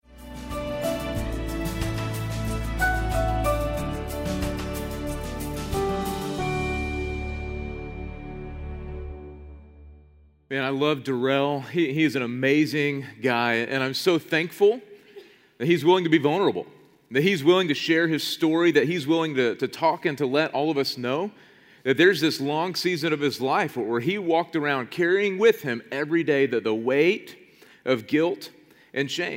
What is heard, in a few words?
Sermon English